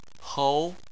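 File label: "hou", Chinese, second tone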